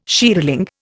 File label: "shearling", Indian